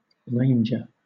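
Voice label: "ranger", British English; pronunciations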